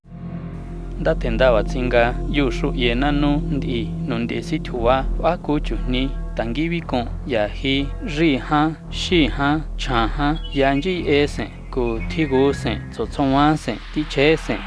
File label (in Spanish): popoloca del centro; Trata Sexual